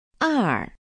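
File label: "er", Chinese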